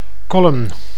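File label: "column", Dutch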